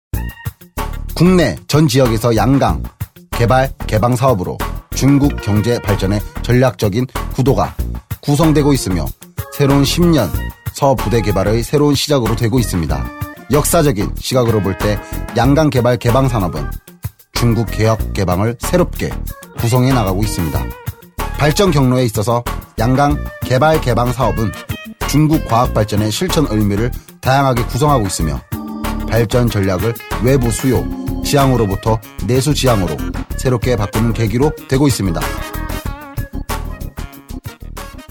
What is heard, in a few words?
korean boy